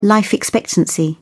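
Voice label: "life expectancy", British English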